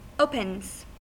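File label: "opens", American English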